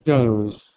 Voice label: "deuz", Proto-Germanic